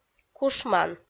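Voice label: "кушман", Komi, female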